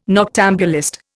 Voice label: "noctambulist", Indian